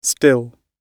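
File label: "still", British English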